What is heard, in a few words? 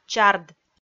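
"чард", Komi, female; kpv audio dict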